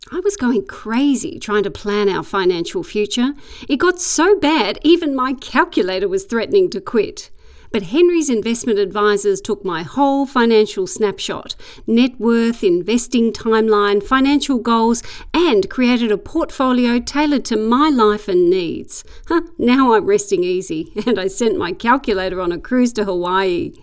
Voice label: Corporate Testimonial